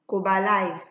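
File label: "kobalize", Nigerian Pidgin, female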